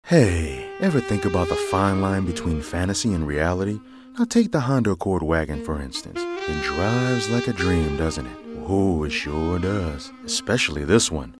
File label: cool jazzy